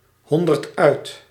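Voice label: "honderduit", Dutch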